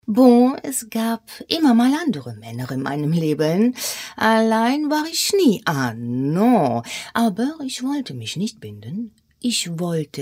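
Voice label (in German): Dialekt Französisch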